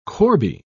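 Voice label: "corbie", British English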